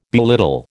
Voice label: "belittle", American